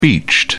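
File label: "beached", English, British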